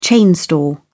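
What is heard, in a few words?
"chain store", British English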